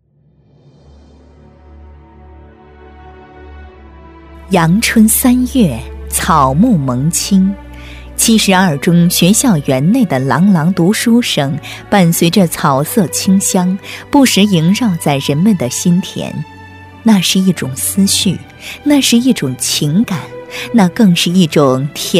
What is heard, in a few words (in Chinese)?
女 学校专题 大气稳重, 深情感人